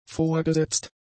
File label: "vorgesetzt", German; adjectives